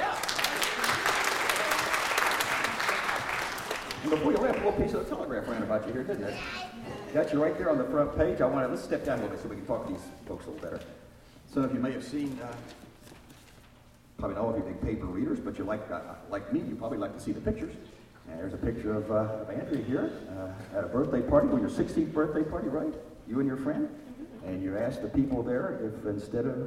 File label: conversation with children